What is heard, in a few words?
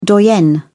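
"doyenne", British English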